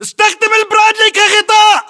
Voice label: order cover bradley, battle chatter, english